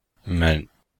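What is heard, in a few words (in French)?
"men", Chinese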